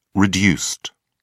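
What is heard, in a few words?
"reduced", English, British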